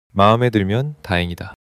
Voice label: neutral